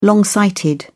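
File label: "long sighted", British English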